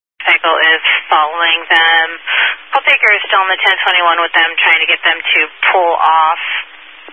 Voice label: Highway Patrol, Phoenix Metro East Area, scanner